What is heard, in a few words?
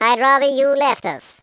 go away, Patronising